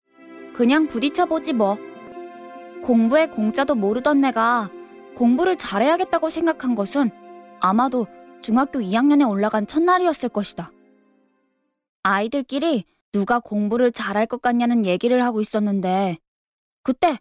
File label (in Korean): narration 일반인 중학생 느낌